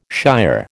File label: "shier", American